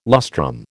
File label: "lustrum", American